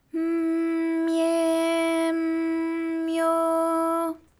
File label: my m mye m myo, JPN; ALYS